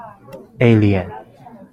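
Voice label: "alien", Japanese, male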